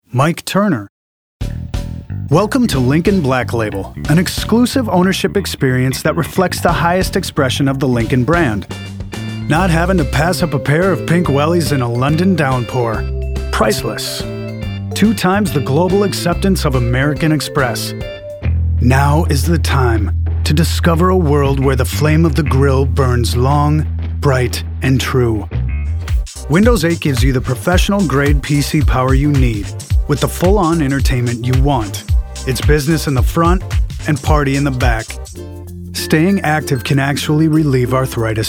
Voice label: Male Commercial

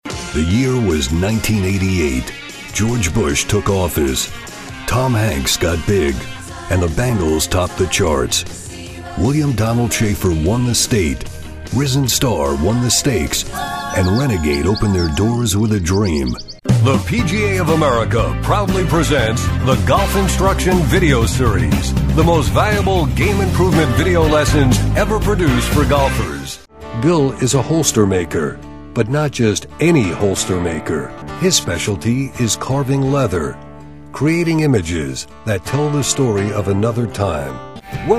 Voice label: NARRATION; Moore Talent

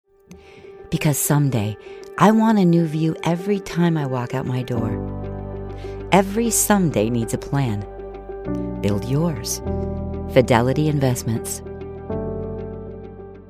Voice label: Female, commercial

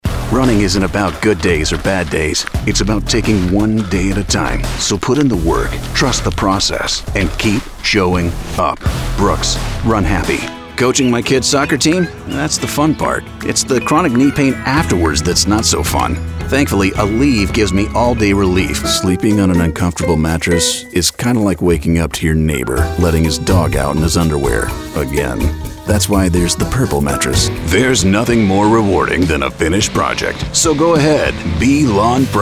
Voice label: Male Commercial

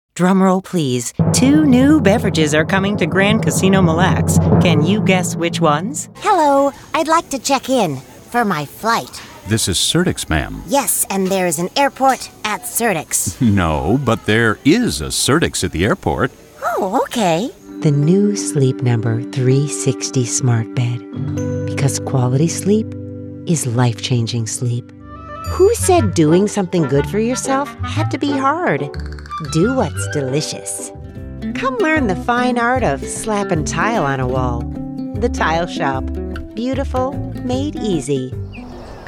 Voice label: female commercial

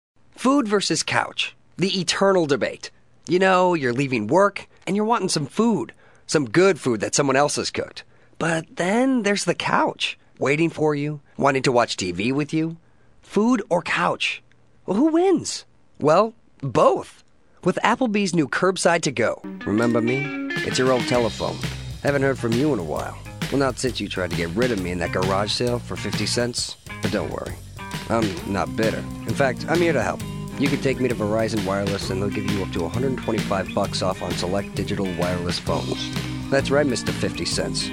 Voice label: male commercial

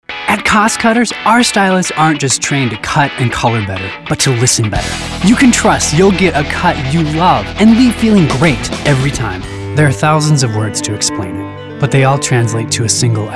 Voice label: Commercial

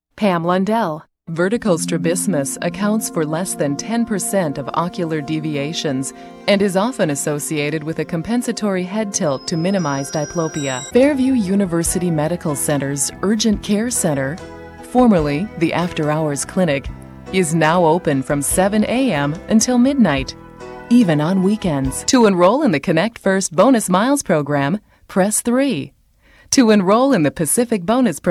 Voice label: Narration

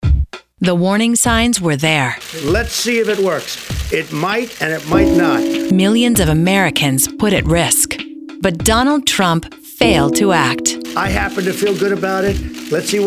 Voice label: Female Political

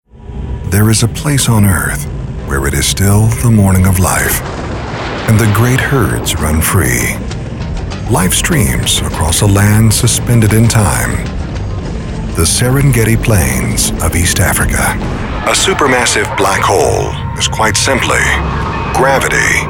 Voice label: narration

